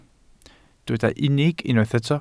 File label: "unig", welsh